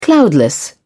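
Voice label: "cloudless", British English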